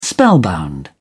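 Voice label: "spellbound", English, British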